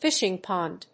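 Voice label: "fishing pond", English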